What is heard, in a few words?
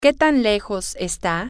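how far is, Spanish, female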